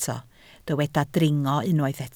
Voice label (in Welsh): "dringo", Welsh